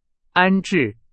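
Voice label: Chinese, vocabulary; hsk4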